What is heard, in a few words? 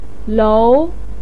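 "lou", Mandarin pinyin, tone 2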